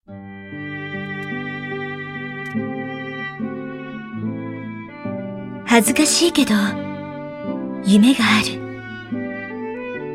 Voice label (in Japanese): ナレーション